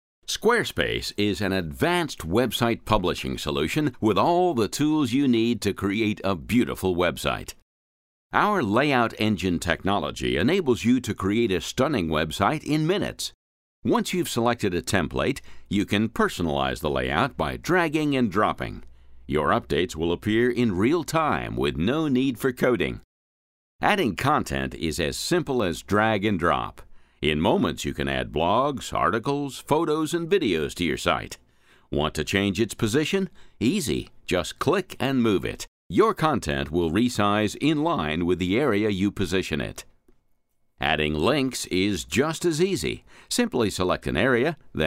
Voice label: Male American